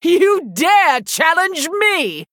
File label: Female Human Hero Power; Prestor; Scabbs